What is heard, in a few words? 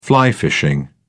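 "fly fishing", British English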